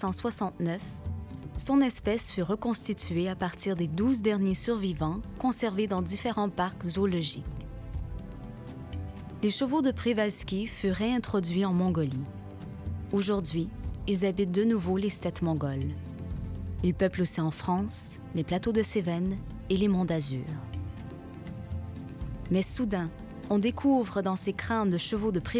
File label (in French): DOC CHEVAL